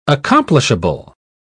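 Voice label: "accomplishable", British English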